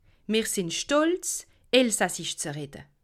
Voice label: "stolz", alsace

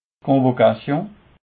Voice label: "convocation", alsace

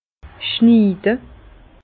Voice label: "schniide", Bas Rhin; vin; tailler

